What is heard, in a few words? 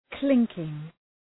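"clinking", English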